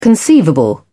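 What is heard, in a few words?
"conceivable", British English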